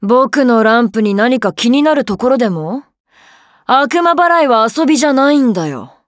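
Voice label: start, Japanese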